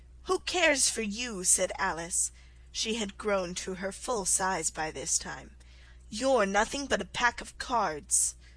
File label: alice in wonderland slow